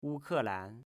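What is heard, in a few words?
"Wukelan", Chinese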